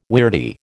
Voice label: "weirdie", American